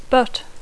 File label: "but", English